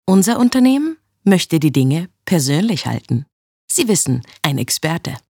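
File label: Conversational business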